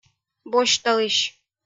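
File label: "босьталысь", Komi-Zyrian, female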